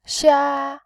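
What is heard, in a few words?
"xia", Mandarin Chinese, tone 1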